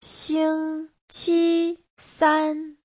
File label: "xing1 qi1 san1", Chinese